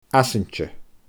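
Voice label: "Asainte", Scottish Gaelic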